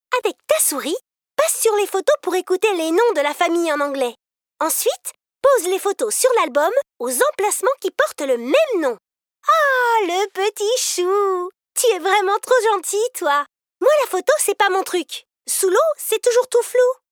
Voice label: Fillette, voix parlée

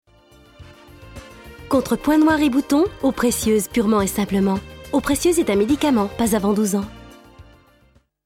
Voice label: garçon ado, jeune fille ado, voix femme off, pub radio; Eau precieuse

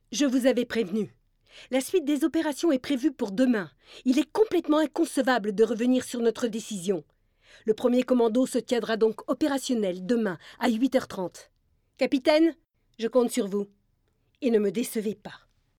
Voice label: femme militaire, voix parlée

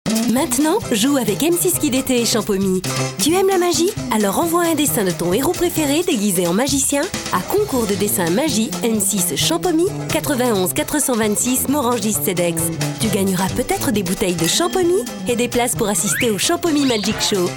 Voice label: femme, VOIX PARLEES; Champomy

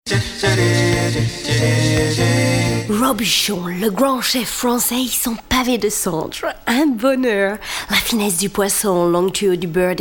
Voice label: Anglaise, voix parlées accents

